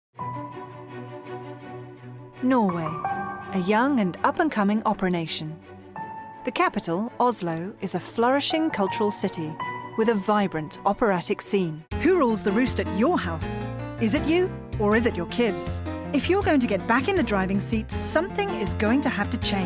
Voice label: Narration